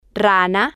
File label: "rana", spanish